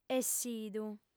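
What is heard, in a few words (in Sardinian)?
"essidu", female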